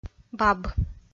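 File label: "баб", Komi-Zyrian, female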